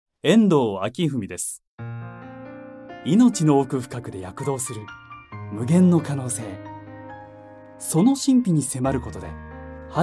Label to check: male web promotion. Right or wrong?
Right